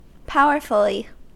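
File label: "powerfully", US English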